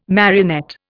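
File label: "marionette", Indian English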